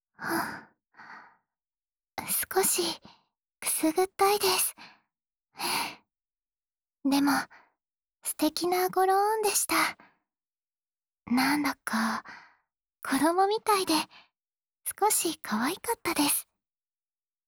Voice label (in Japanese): 湯浴みの後の囁きお耳かき(左), ASMR